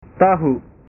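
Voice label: "tahu", Indonesian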